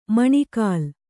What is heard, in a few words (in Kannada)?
"ಮೊಣಕಾಲ್", Kannada